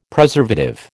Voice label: "Preservative", American